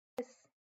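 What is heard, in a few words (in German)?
"bis", German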